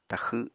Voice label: "taxw7", apatani